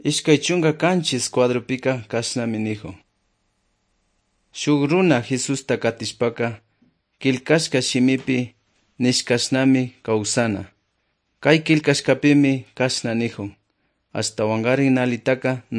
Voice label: good news quichua otovalo